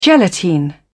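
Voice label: "gelatine", British English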